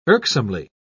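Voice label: "irksomely", British English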